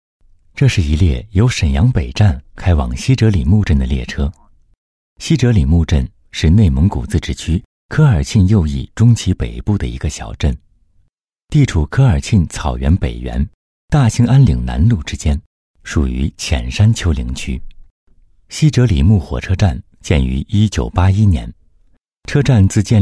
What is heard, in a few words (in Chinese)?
栏目 纪录片